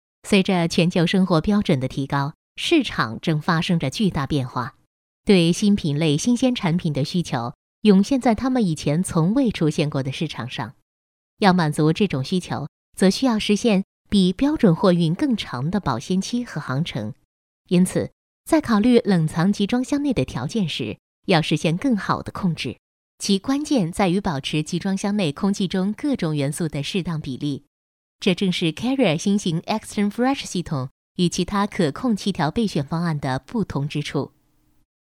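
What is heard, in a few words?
Mandarin Chinese, female, voice over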